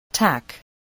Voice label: "tack", English

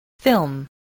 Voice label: "film", spelling

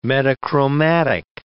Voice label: "metachromatic", English

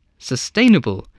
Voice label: "sustainable", English

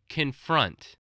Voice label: "confront", english

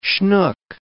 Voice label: "schnook", English

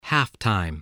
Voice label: "halftime", spelling

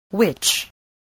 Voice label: "which", spelling

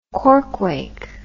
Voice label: "corequake", English

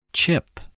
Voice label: "chip", spelling

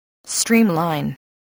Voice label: "streamline", spelling

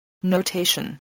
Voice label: "notation", voice, spelling

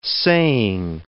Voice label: "saying", English

